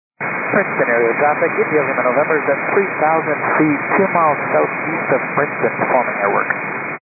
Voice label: 122.925 MHz; scanner radio